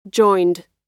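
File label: "joined", US English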